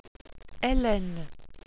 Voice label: "elen", welsh pronouciations; Wales/welsh names